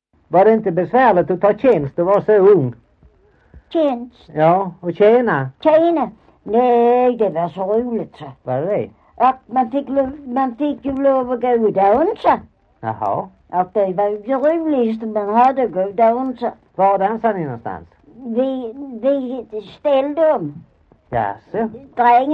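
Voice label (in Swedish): Maglarp Skåne, Svenska sagor dialekter; Folkminnen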